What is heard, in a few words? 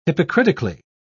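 "hypocritically", British English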